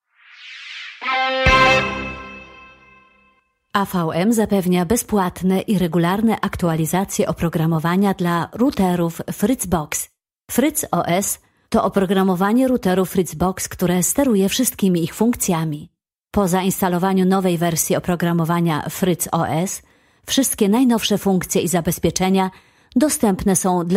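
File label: Polish, female, e-learning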